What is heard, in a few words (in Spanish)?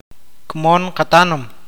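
grupo étnico, awakateko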